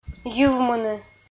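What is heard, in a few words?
"йывмыны", Komi, female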